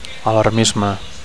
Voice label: "alarmisme", Catalan

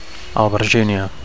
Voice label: "alberginia", Catalan; (f) aubergine (Br.); eggplant (Am.)

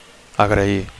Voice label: "agrair", Catalan; to thank

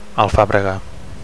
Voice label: "alfabrega", Catalan; (f) basil

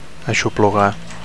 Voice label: "aixoplugar", Catalan

